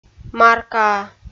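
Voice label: "маркаа", Komi, female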